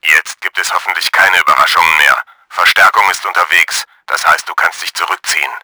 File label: WARSPITE DEAD, german; Battle Engine Aquila